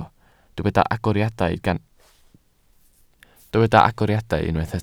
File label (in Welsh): "agoriadau", Welsh